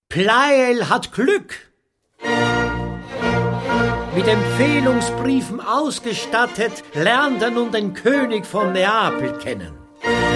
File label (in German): Hörbuch; pleyel